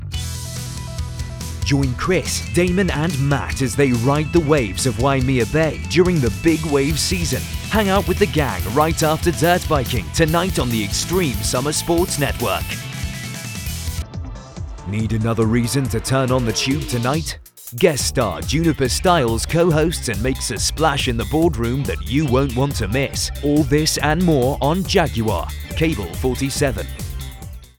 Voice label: Television UK

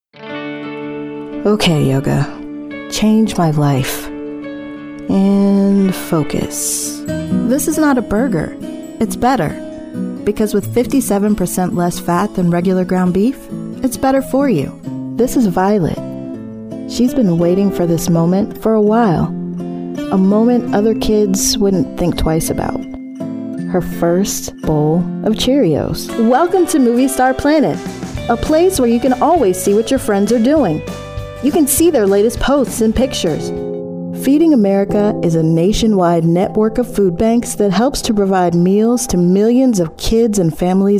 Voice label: Commercial Voiceover

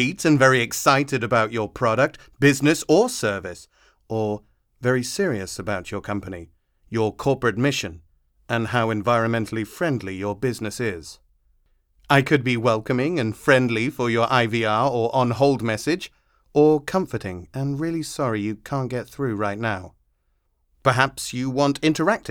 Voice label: Voice audition script

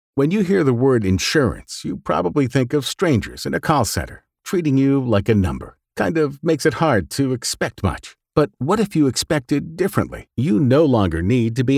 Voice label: Conversational Reads

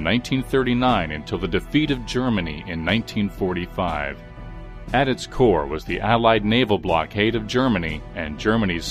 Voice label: battle of atlantic narration

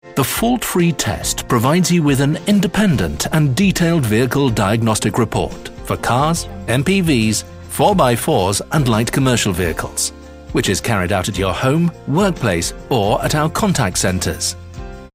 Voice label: EXPLAINER straight to point measured copy; FAULT FREE TEST

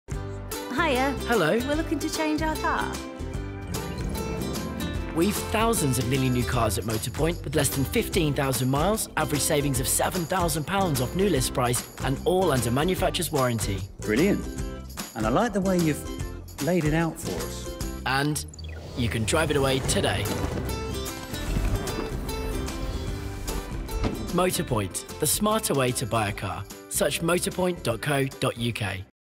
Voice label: TV advert; Motorpoint

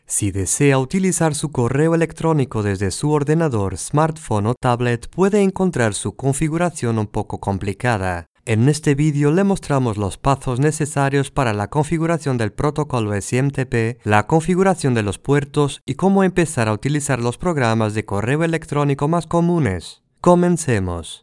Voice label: Elearning Spanish

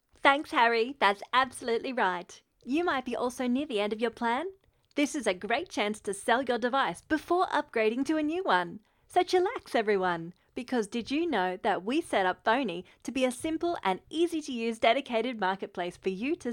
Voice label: Animated ad voice

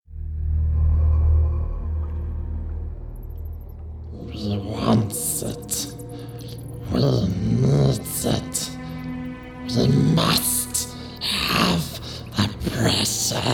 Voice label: VO effects; Gollum

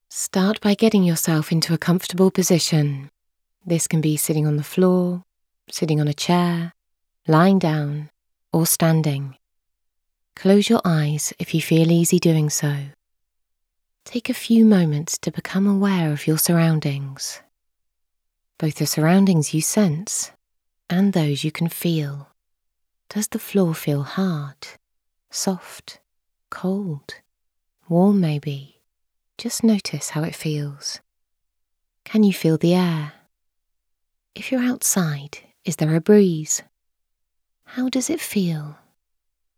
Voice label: Meditation

